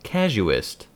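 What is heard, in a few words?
"casuist", US English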